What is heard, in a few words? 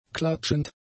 "klatschend", German; adjectives